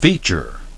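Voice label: "feature", English; ˡfiː.ʧəʳ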